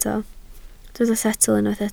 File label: "setl", welsh